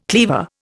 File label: "cleaver", Indian